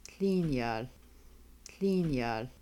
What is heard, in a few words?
arrive come go you singular, Kaska